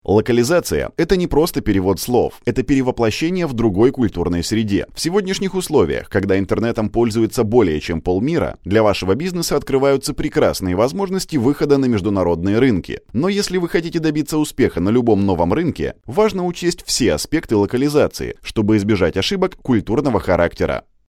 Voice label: russian male

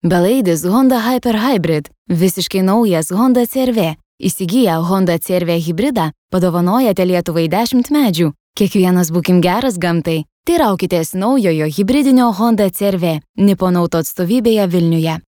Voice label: lithuanian female

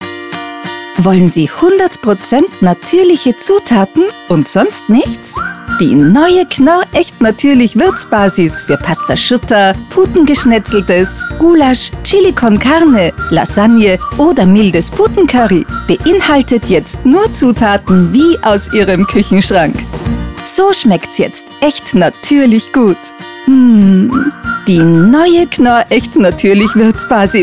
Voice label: german germany female

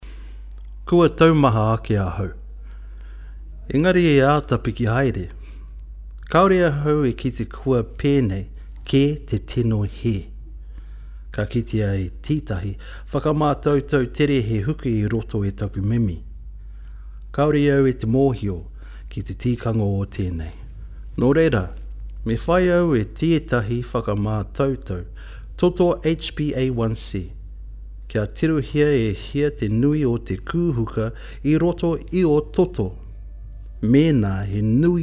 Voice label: maori male

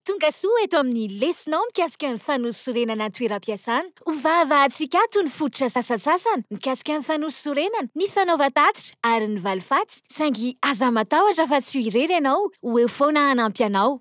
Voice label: malagasy female

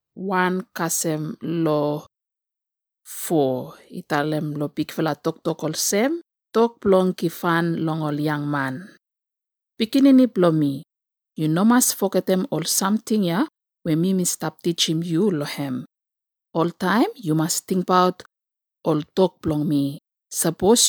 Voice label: bislama female

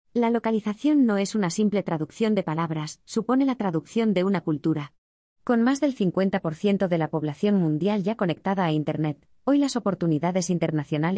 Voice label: spanish Spain female

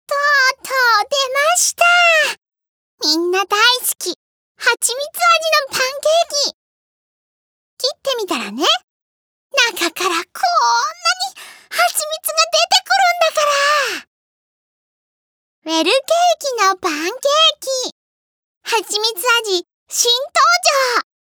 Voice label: japanese female

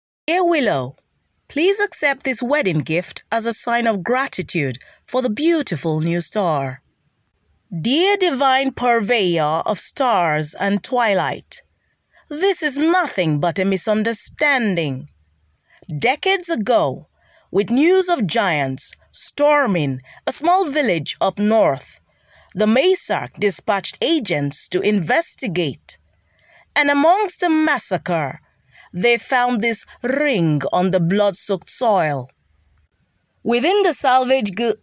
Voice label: accented english female

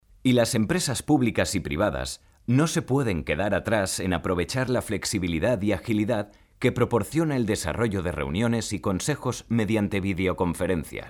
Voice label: spanish Spain male

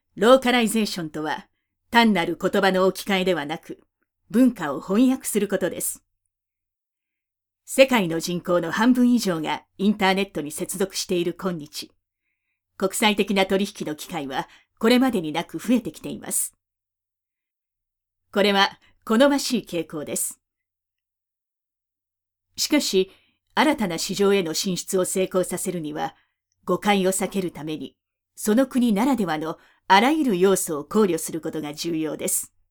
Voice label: japanese female

